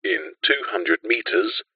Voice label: technology sat nav voice male uk says in two hundred metres